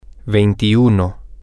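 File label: twenty one, Spanish, female, male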